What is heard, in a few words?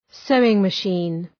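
"sewing-machine" English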